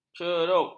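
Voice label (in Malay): "cerup", Malay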